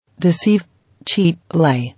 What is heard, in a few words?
"Deceive, cheat, lie", English